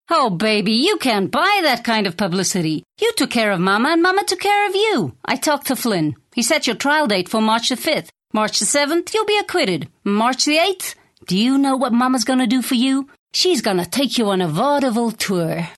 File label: Accent American